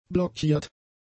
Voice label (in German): "blockiert", German; adjectives